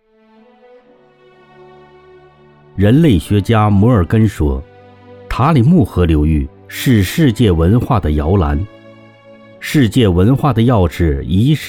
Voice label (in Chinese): 城市宣传片新疆